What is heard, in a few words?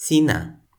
"sina", Toki Pona